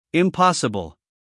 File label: "impossible", us male; everyone-can-use-english